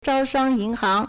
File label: "zhao1shang1yin2hang2", Chinese